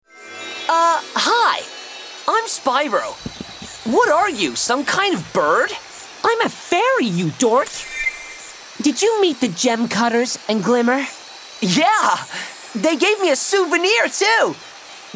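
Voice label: Computer Games, Light tone. Young, light & peppy. London.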